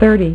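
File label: "thirty", female; MacCribbage; InterStellar MacCribbage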